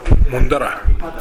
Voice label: "mondara", minamiyamagata